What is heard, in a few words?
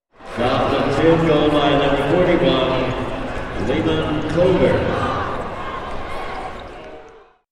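Arena Announcement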